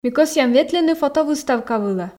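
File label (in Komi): ми кӧсъям ветлыны фотовыставка вылӧ, Komi, female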